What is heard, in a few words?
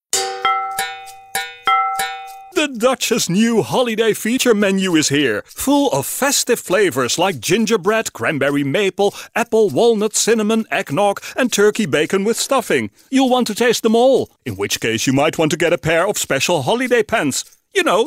English with dutch accent